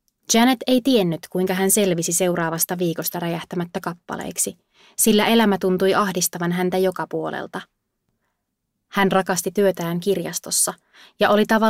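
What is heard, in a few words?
Finnish, Audiobook, female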